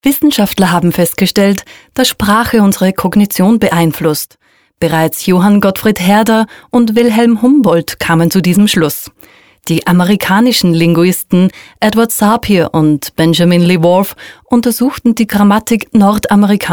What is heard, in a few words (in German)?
Doku